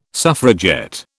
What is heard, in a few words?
"suffragette", British